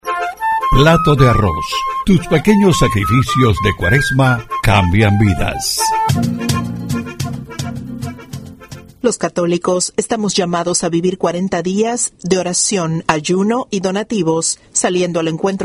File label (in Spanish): spot; PLATO DE ARROZ